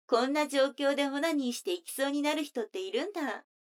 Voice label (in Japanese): 女の子 台詞, ASMR